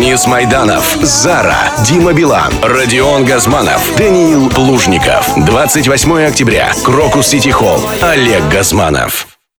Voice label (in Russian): Диктор Ролик, юбилейный концерт